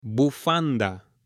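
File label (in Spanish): "bufanda", Spanish, male; ROPA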